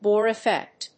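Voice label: "Bohr effect", English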